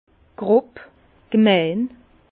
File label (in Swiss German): "grob", Bas Rhin; vin; grossier